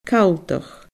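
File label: "calltach", Scottish Gaelic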